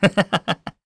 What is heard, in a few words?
Happy; voices; heroes; Zafir; kingsraid